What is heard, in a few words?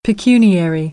"pecuniary", American English